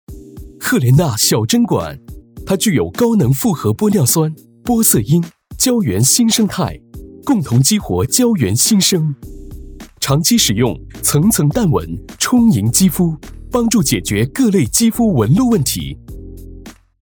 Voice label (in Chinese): 国语广告; 赫莲娜